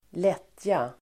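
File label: "lättja", Swedish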